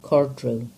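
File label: "Cardrew", English; place-names; Caerdro 1311 (Gover, 1948)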